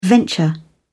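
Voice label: "venture", British English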